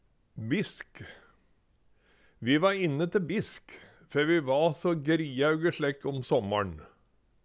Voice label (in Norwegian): bisk, Numedalsmål; Det andre måltid om dagen, ved 9 – 10 tida